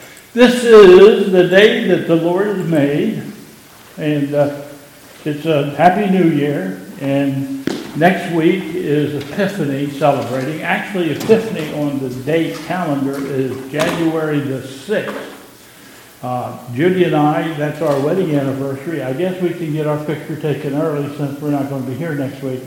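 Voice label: Announcements, church service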